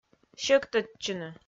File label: "сьӧктӧдчыны", Komi